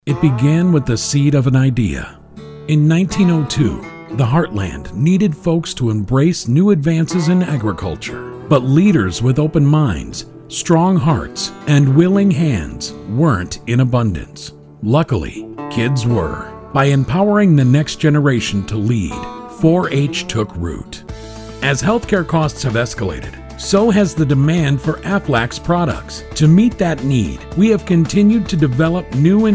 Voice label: english american corporate